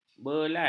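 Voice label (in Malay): "belat", Malay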